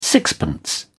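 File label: "sixpence", British English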